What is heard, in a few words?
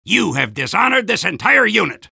soldier jeers; vo; tf2